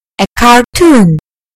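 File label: "cartoon", British English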